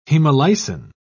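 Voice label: "hemolysin", British English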